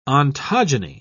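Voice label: "ontogeny", British English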